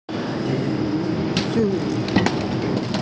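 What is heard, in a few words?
"zi3shu3", Mandarin Chinese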